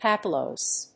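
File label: "papillose", English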